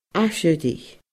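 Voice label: "aiseirigh", Scottish Gaelic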